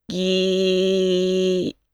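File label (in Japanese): "ぎ", Japanese syllable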